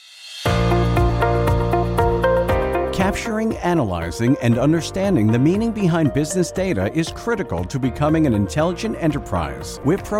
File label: commercial, english male voices american accent